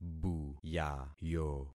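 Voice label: "buyayo", concatenated syllables; Affixing experiment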